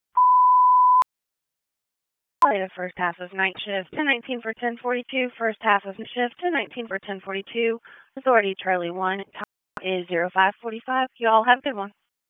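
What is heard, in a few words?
Police North District Dispatch, P25; Raleigh; wake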